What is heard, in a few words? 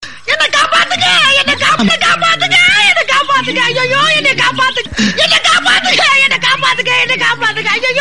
comedy; vadivelu